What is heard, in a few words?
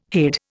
"hade", Indian